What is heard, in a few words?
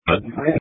Police Tactical Encrypted; sdr